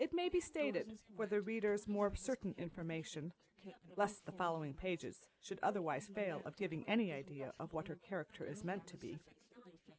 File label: female; SASSEC07